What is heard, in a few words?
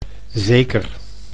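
"zeker", Dutch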